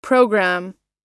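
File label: "programme", US English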